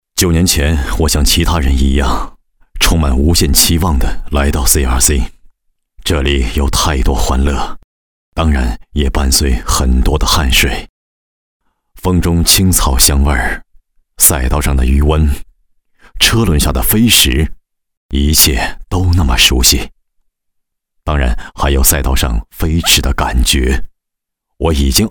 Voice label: 人物独白 品质浑厚底蕴; 赛车

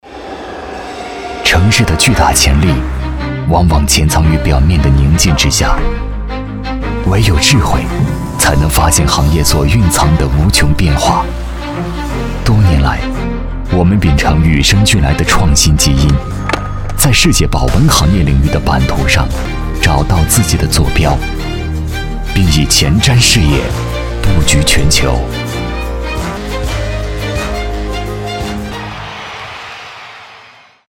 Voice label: 干练时尚; 赢胜小样

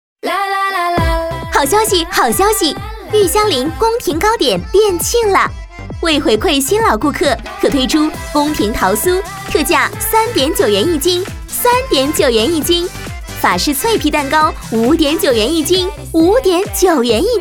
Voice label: 叫卖促销 宫廷糕点 好消息式小广告 甜度; 促销广告

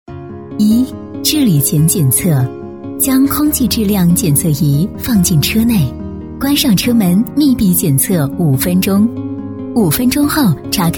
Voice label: 产品解说; 纳净能; 培训课件

